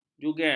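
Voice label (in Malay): "jugel", Malay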